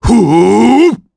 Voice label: Vox Skill jp; kingsraid

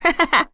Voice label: Vox Happy kr; Chrisha; kingsraid

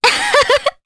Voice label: Happy, Jp; Scarlet; kings raid

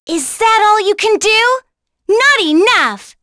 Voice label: Vox Skill, en; kingsraid

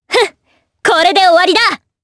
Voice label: Vox Skill, jp; Sonia; kings raid

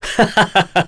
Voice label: Vox Happy; Roman; kingsraid

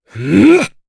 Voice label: Casting, jp; kingsraid

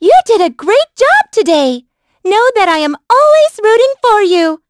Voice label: vox, en; kingsraid

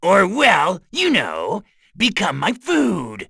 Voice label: Vox Skill, en; Bernheim; kings raid; voices heroes en

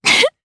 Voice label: Vox Happy, jp; DarkFrey; kingsraid

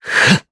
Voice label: Vox Casting, jp; Esker; kingsraid

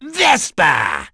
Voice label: Vox, en; kingsraid; voices heroes

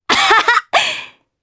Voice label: Vox Happy, jp; Naila; kingsraid

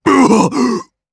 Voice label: Vox Damage jp; voices; heroes; Bernheim; kings raid

